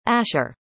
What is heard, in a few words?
"ashar", arabic; indonesian boy names